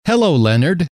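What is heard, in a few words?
hello leonard, male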